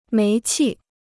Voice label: "煤气", Chinese